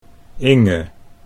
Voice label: "Inge", Dutch

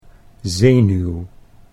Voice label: "zenuw", Dutch

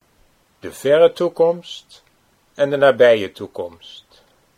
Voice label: verre en nabije toekomst, Dutch

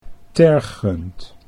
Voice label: "tergend", Dutch

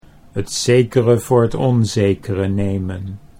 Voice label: "t zekere voor t onzekere", Dutch

